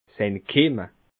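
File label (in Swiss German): "entkimme", Alsatian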